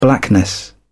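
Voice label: "blackness", British English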